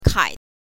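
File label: "kai", Mandarin Chinese, neutral tone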